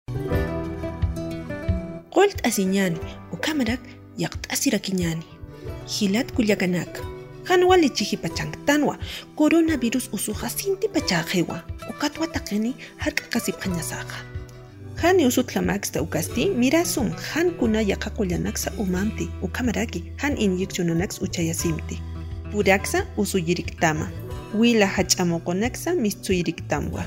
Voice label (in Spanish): aimara, spot de radio; tomar medicamentos con precaucion; sospecha o confirmacion de contagio